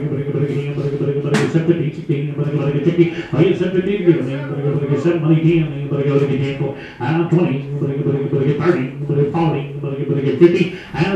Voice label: Cattle Auction